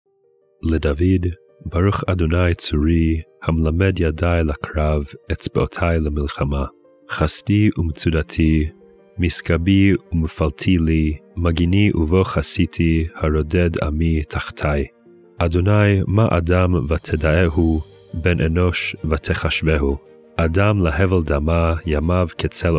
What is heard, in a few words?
poetry and prayer psalm hebrew